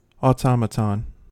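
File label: "automaton", American English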